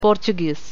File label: malayalam